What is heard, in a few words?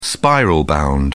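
"spiral-bound", British English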